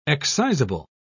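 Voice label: "excisable", British English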